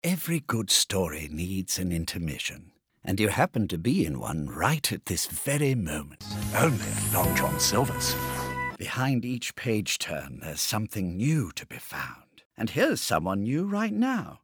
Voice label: VO British